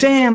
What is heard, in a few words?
"damn", expletives